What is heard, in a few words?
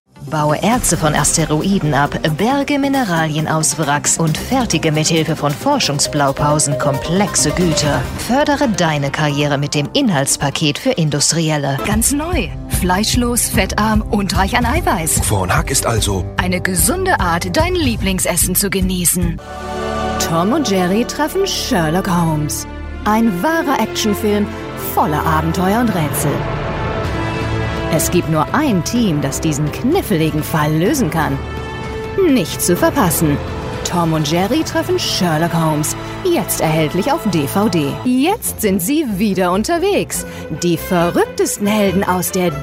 german female german commercial